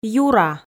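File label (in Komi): "юра" Komi, female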